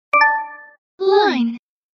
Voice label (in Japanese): 「LINE」女性の声の通知音